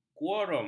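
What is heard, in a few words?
"kuorum", Malay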